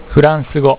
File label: "French", japanese